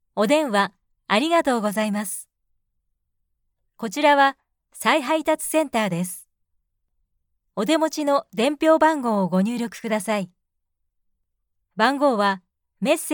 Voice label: japanese ivr

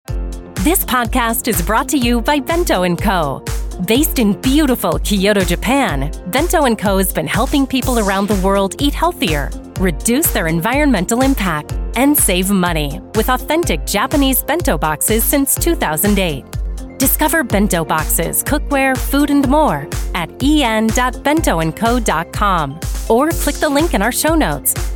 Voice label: english american podcasts

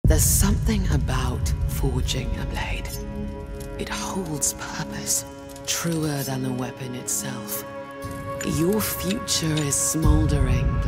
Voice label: english british animation

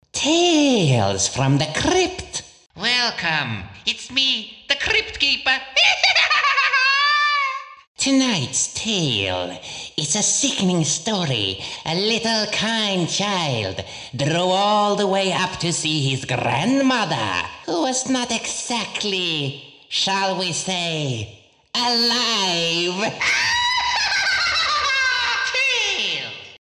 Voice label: swedish impersonations, voice actor; professioneller schwedischer; Imitationen

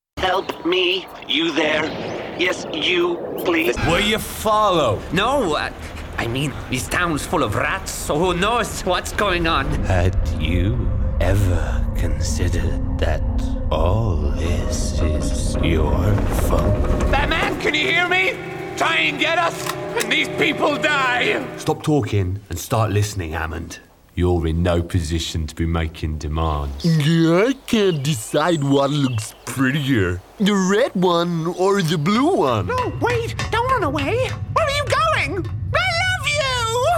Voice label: english british animation

